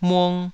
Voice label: "mung2", taiwanese syllables

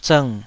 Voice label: "zng3", taiwanese

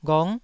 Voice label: "ghong9", Taiwanese syllable

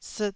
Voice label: "siit4", taiwanese Syllables

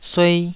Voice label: "suei2", taiwanese Syllables

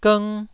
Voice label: "gng1", taiwanese

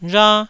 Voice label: "rha1", taiwanese

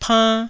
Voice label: "pann1", Taiwanese

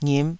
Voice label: "ngip5", taiwanese syllables

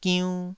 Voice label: "giunn2", taiwanese

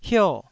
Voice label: "hio4", taiwanese

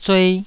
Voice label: "zuei2", taiwanese

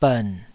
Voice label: "bern3", taiwanese syllables; 台語音節發音檔案

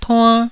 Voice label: "tuann1", taiwanese

